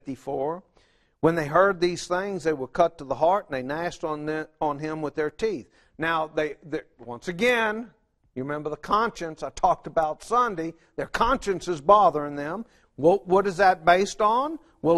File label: English